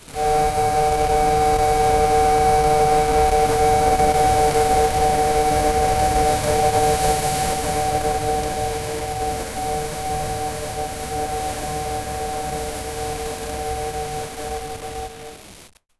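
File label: pa glitch chopped japanese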